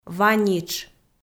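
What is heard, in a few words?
"ва нитш", Komi, female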